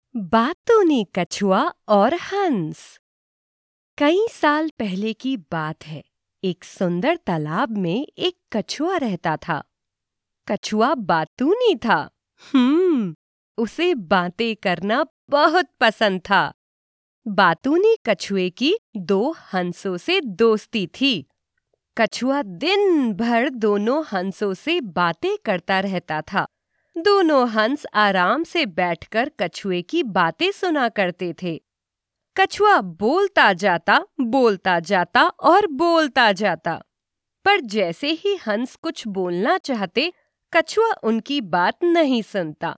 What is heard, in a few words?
Hindi Storytelling